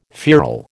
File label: "feral", American